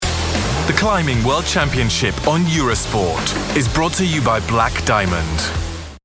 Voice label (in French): Voix off; Eurosport